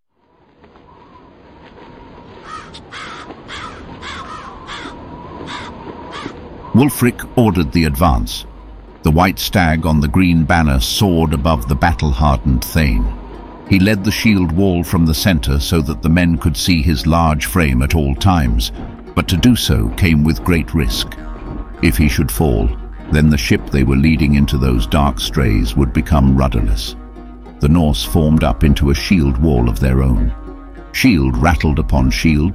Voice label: Narration